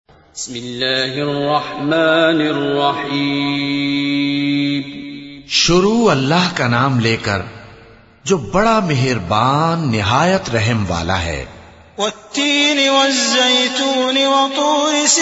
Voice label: surah at tin urdu translation; quran